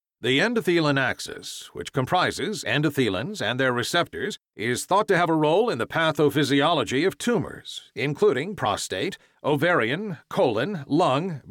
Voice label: Medical Narration; Endothelin Axis